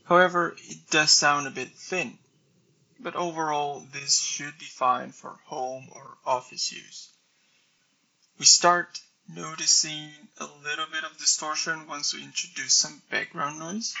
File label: bose sport earbuds microphone test